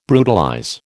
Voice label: "brutalize", American